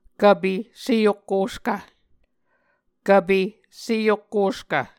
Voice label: "kvpe seokkoskv", Muscogee